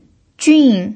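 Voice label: "jun" fourth tone, pinyin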